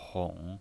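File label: "hong", third tone, pinyin voice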